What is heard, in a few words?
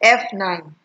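"F9", Nigerian Pidgin, female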